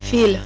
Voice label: "fil", French

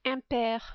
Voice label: "imper", French

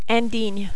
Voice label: "indigne", French

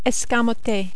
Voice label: "escamoter", French

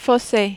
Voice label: "fosse", French

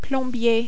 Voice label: "plombier", French

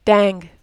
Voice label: "dingue", French

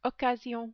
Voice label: "occasion", French

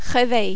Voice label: "reveil", French

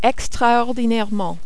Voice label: "extraordinairement", French

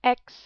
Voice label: "aix", French

